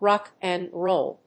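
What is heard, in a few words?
"rock n roll", English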